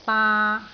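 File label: "baa3", Cantonese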